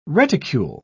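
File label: "reticule", English, British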